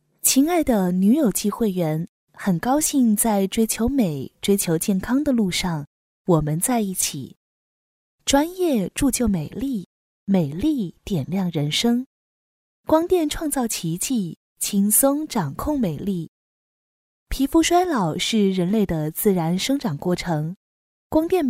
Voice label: 女 专题 介绍类配音

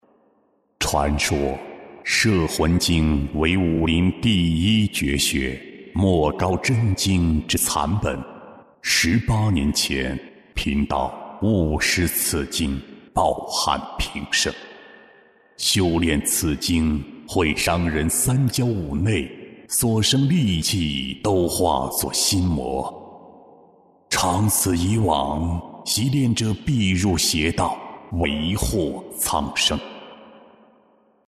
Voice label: 男 游戏; 高手陆剑城

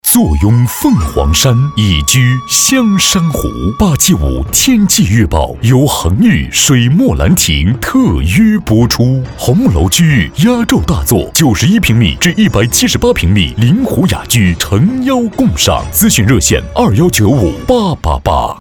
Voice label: 男 广告

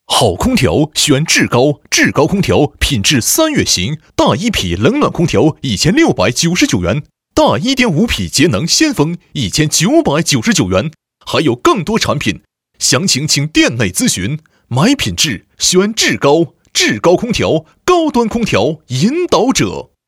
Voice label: 男 促销; 志高空调

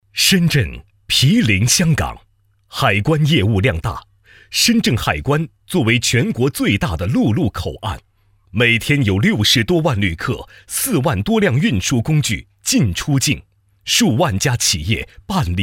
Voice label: 男 专题; 深圳海关

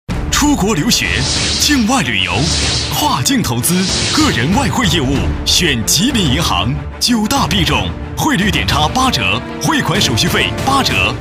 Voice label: 男 广告 银行; 吉林银行

